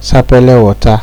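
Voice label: "sapele water", Nigerian Pidgin, male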